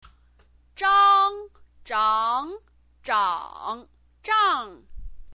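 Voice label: "zhang", Mandarin Chinese pinyin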